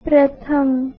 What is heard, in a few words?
"pratham" Hindi